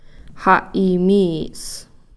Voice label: "ha'iimii's", six